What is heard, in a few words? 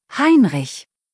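"Heinrich", German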